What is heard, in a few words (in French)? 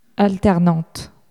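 "alternante", French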